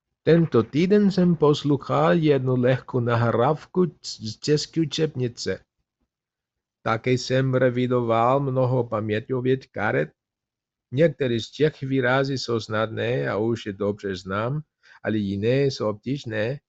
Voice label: czech exercise; tento tyden